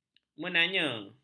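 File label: "menanya", Malay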